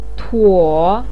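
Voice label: "tuo3" Chinese syllable, pinyin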